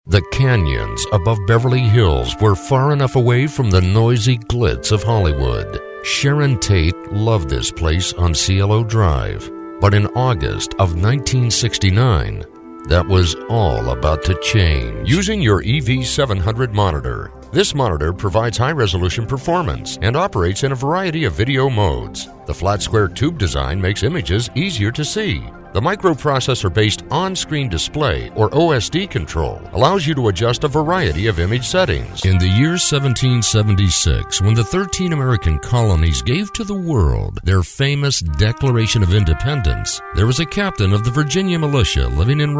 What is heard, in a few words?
DVD Narrations